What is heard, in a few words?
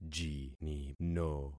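"jinino", concatenated syllables; Affixing experiment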